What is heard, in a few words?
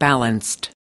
"balanced", us